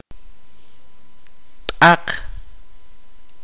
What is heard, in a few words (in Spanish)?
"hueso", kaqchikel